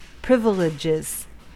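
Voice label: "privileges", American English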